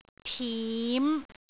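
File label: "tim5", Cantonese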